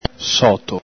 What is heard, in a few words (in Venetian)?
"soto", Veneto